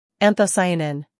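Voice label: "humor", British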